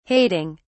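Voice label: "hating", English, uk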